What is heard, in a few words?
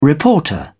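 "reporter", British English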